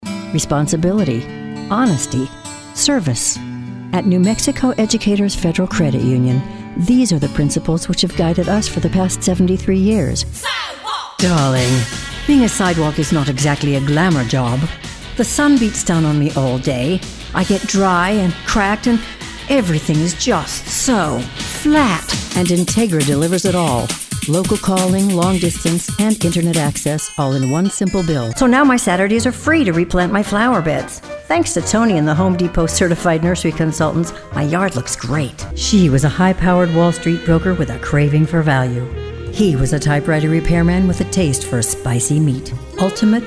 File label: Commercial